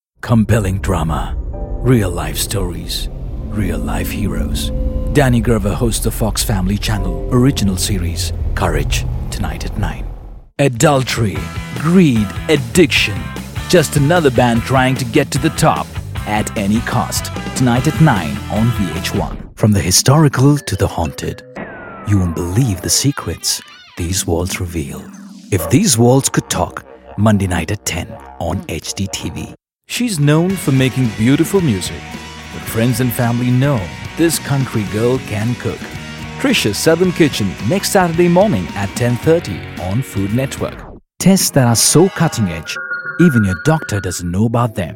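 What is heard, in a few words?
promos english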